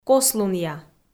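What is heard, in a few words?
"кослунъя", Komi, female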